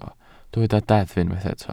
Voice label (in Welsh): "deddf" Welsh